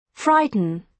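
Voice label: "frighten", British English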